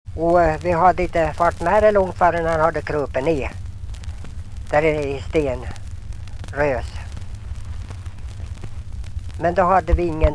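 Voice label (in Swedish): Ljustorp Medelpad, dialekter; Folkminnen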